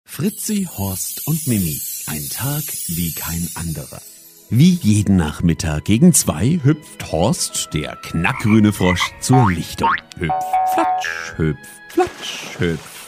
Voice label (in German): Kinder